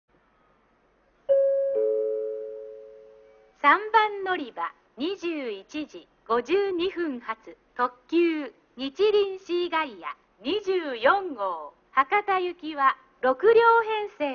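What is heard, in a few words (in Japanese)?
3番のりば案内放送, 中津駅; にちりんシーガイア24号 博多行き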